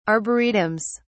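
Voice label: "arboretums", British English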